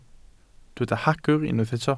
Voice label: "hagr", Welsh

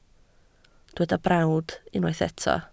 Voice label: "brawd", Welsh

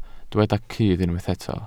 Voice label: "cudd", Welsh

